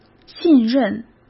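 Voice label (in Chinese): "信任", Mandarin Chinese; hsk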